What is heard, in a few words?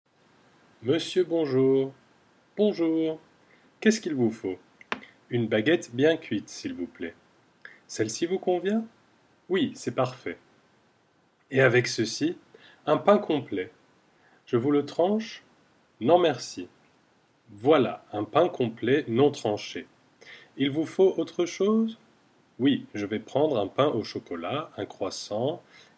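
dialogue boulangerie, French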